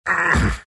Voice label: anger; dota; earth spirit